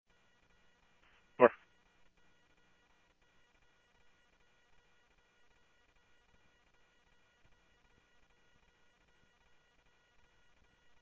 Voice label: Police, scanner

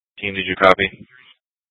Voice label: Dispatch; Scottsdale

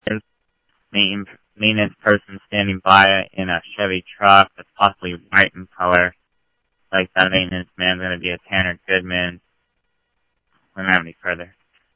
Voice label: Tempe Police, scanner audio

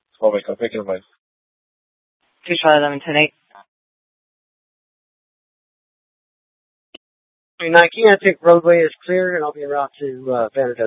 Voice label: Mesa PD Fiesta Dispatch

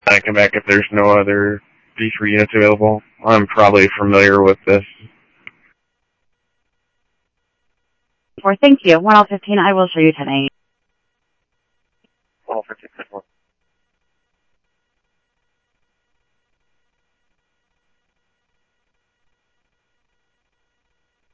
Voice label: PD Police, scanner audio

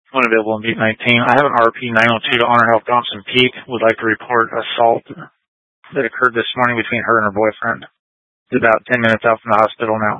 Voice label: Scottsdale Police, Simulcast

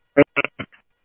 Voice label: scanner audio trunk

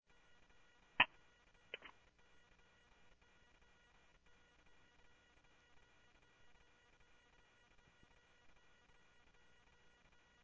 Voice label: Police, scanner audio trunk

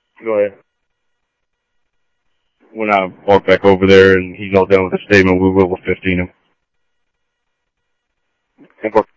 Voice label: Mesa Simulcast, MESA PD, scanner audio

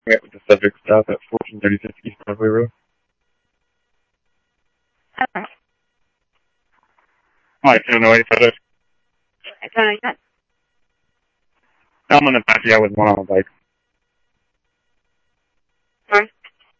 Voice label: Tempe Police, scanner

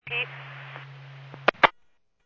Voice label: Medevac, scanner; NativeAirAmb

